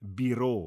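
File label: "biro", Romansh sursilvan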